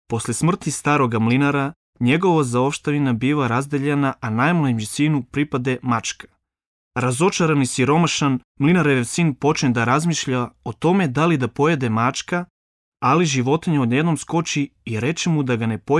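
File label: Serbian male narration; voice demos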